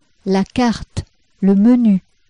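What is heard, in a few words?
"le menu", French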